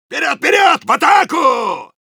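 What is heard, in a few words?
go, Russian; «Вперёд! Вперёд! В атаку!»; Soldier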